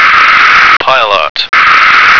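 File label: "pilot", American English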